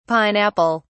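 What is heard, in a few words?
"pine-apple", British English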